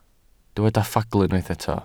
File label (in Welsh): "ffagl" Welsh